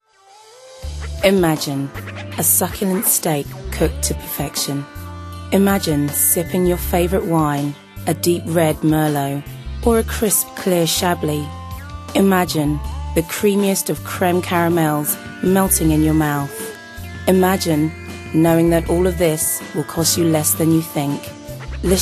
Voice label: Commercials

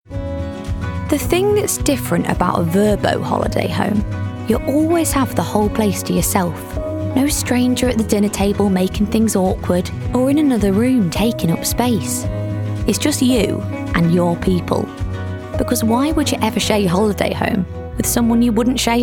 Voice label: Commercials; 20s-30s. Female. Studio. South African.

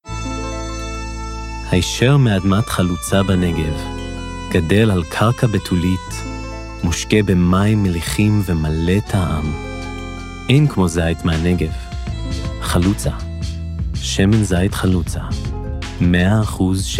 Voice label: Hebrew; Hebrew, Middle Eastern, Male, 20s-40s

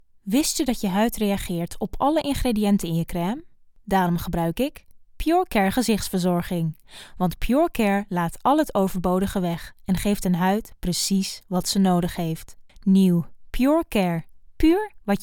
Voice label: Home Studio

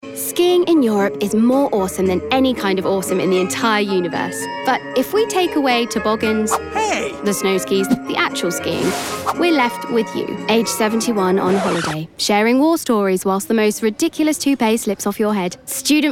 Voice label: Commercial Reel

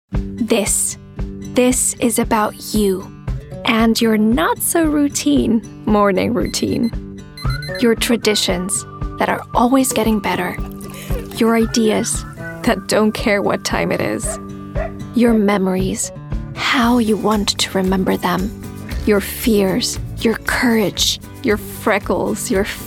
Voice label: English, Female, 20s-30s

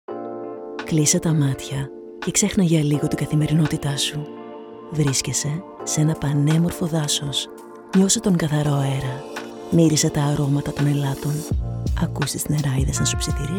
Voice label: Greek voice

